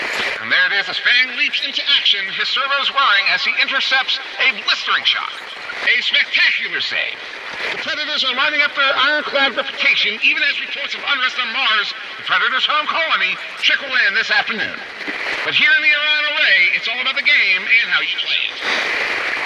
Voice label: Transmission Echoes

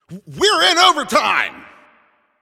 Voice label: Announcer Overtime Starts

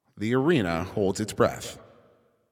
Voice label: 10 Seconds To Game Start, Announcer

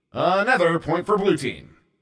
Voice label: Point Blue, Announcer

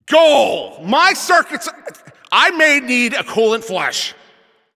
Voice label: Goal Scored, Announcer

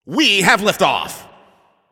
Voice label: Game Start, Announcer

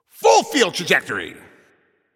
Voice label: Announcer Long Hit To Other Side

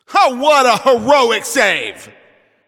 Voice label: Announcer Goalie Save

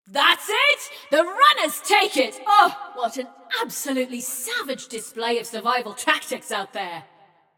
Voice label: Runners Win, Announcer; Flux; Scraprun

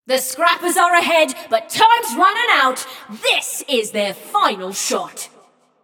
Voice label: Scrappers Lead, Announcer; Flux; Scraprun

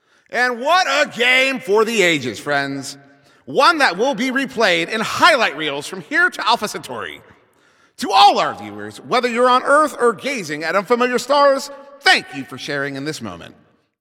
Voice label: Announcer Game Ends

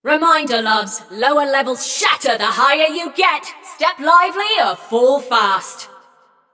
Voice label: Scrap Run General Reminder, Announcer; Flux

